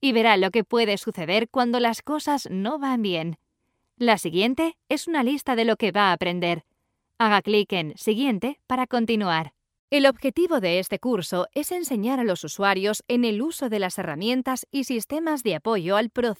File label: latin spanish female castilian spanish e-learning